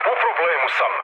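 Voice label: Serbian aircraft damaged mood low, speech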